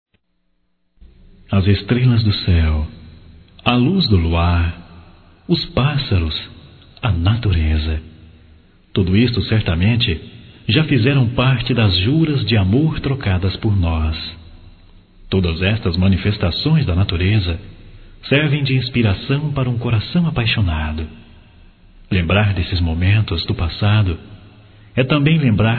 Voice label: Bodas de Prata Masculino, Só Voz; Telemensagens; Telemensagens 2017

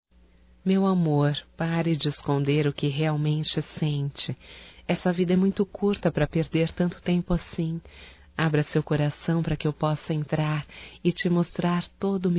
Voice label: ROMANTICA(F), Românticas, fem

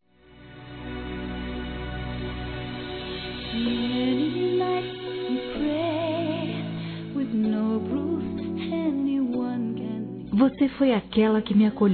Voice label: MÃE ADOTIVA F, Telemensagens Dia das Mães Fem; Telemensagens 2017

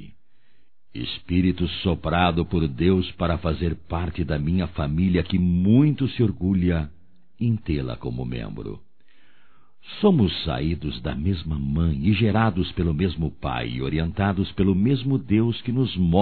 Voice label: Aniversário Irmã Masculino; Aniversarios; Telemensagens 2017

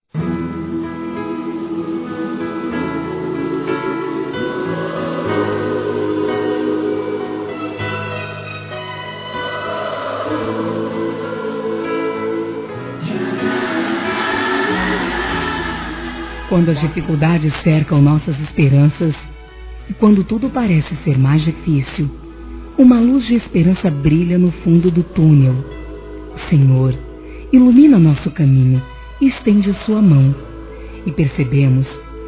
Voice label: Neutro Católico Feminino

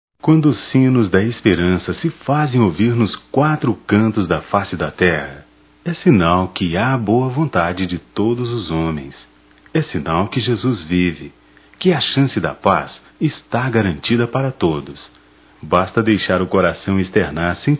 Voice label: Natal evangélico plural m; masc; Telemensagens 2017; Natal & Ano Novo

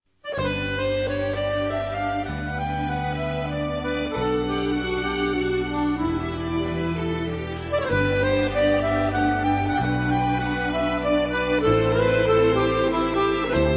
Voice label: DECLARAÇÃO F; Telemensagens; Declaração de amor; Telemensagens 2017

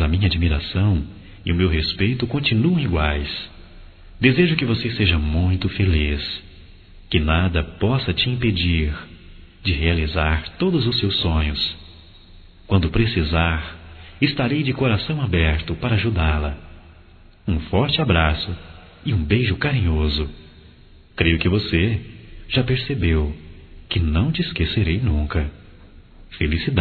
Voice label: Amor do Passado Masculino; Telemensagens 2017

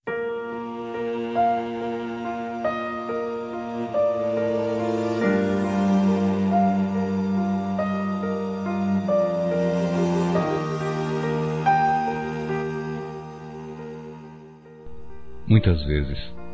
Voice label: Dia das Mães Geral, Masc; Telemensagens 2017

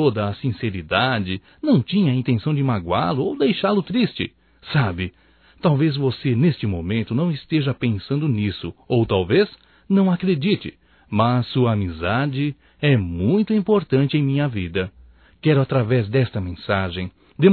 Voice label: Desculpas Amigo Masculino; Otimismo; Telemensagens 2017

